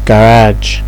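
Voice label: "garage", Nigerian Pidgin, male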